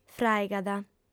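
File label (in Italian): "fràigat", lingua sarda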